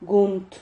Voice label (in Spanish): "gunt", Silabas